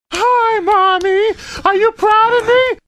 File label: hi pitch; mummy